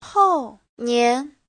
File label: "hou4 nian2", chinese; Giáo trình Hán ngữ